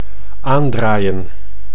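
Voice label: "aandraaien", Dutch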